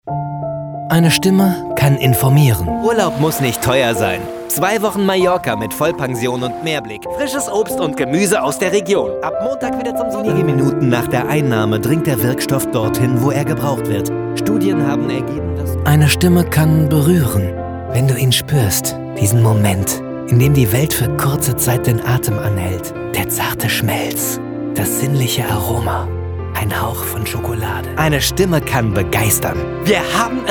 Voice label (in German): werbung trailer; Sprecher in Berlin; Muttersprache Deutsch